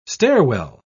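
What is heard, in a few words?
"stairwell", English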